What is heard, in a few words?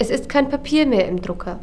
NOPAPER, PRNTRSND GERMAN; NeXT